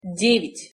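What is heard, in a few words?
"devjat", Russian pronunciation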